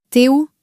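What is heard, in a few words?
"t'es où", French, female; flashcards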